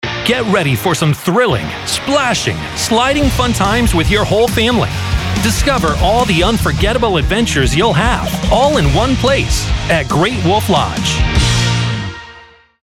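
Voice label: commercial, voice over for commercials; great wolf lodge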